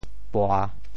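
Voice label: "pua3", Teochew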